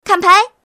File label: Look Card, Woman; SanZhangPai